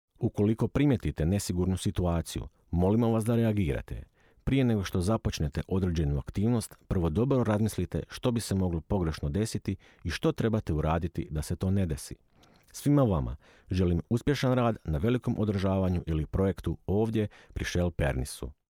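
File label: croatian male narration, voice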